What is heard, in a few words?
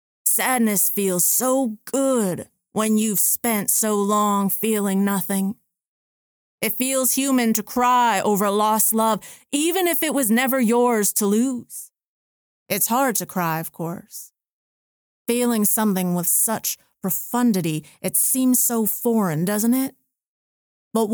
Southern Preacher Man